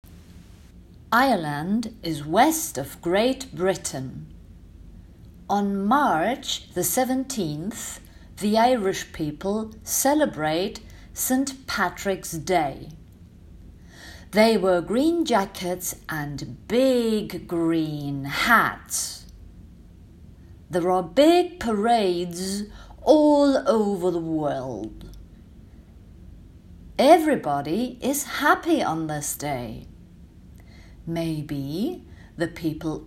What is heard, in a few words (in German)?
Englisch Aufgabe St Patricks Day Text; Grundschul-Blog